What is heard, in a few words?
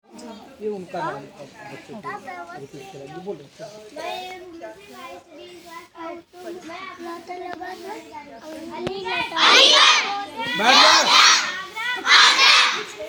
interview; grade2; lucknow